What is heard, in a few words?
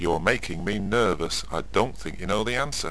chat